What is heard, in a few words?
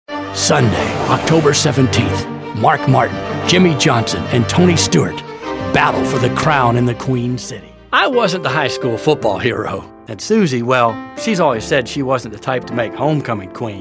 General, commercial